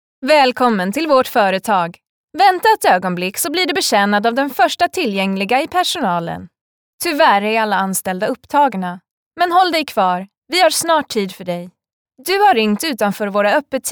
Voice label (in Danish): Uformel kvinde svensk